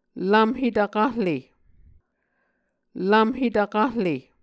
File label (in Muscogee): "lvmhe tvkahle", Muscogee